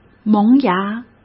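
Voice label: "萌芽", Mandarin Chinese; hsk; chinese flashcards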